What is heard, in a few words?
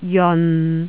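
"jeon6", Jyutping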